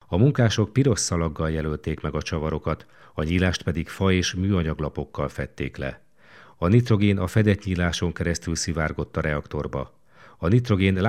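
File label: Hungarian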